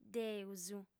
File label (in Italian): "Deus", lingua sarda